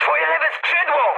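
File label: Polish left wing mood high; War Thunder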